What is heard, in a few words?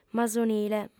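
"masonile", female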